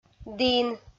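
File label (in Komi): "дін", Komi, female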